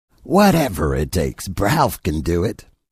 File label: The broadcast voice